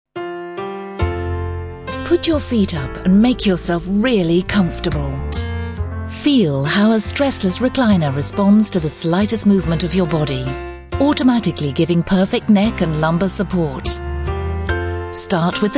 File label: commercials